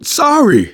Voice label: sorry, survivor voice manager; Left 4 Dead 2; left4dead2 dlc2

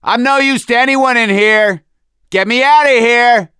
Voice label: call for rescue, survivor voice; gambler

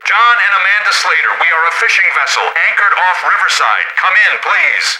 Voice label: radio initial broadcast

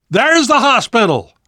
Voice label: world hospital, namvet, survivor voice

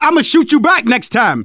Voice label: friendly fire, manager, survivor, voice; Left 4 Dead 2; left4dead2 dlc2

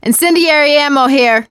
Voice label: incend ammo, voice; survivor; producer

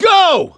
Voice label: emphatic go, voice; gambler

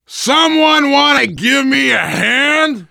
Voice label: ledge hang start, voice, biker

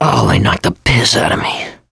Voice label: going to die, survivor voice mechanic

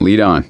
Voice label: lead on, voice; gambler

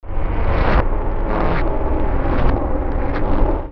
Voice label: strange talk

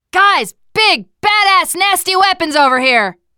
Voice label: spot weapons, teengirl, voice

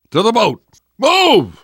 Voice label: arrive boat, survivor voice; namvet; Left 4 Dead 2; left4dead2 dlc2

